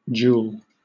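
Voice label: "joule", British English; pronunciations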